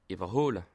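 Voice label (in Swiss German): "iwerhole", Bas Rhin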